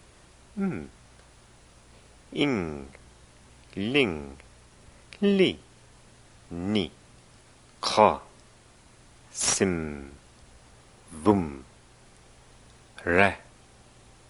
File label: pronunciation first 18 images 3 times slowly